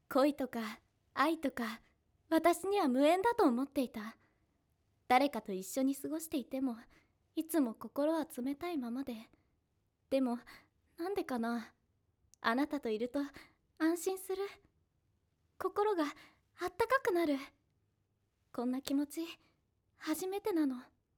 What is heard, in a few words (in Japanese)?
ボイスサンプル お姉さん